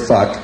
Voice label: "fuck", expletives; carlin

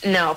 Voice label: "no" stern woman; Voice; expletives

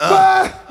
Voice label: "uhwhat", Voice; ooh ahh; uh um

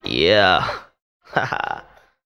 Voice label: "yeah ha ha", voice

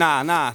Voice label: nah ha male; ooh ahh; human

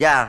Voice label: "yo", Voice

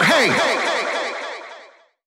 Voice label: "hey", voice

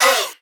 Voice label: "oh" filtered; ooh ahh

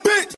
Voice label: "bitch", expletives

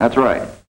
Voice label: that's right male

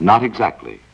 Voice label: not exactly, announcer; Voice

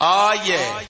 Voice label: "ah yeah", voice

